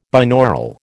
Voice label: "binaural", American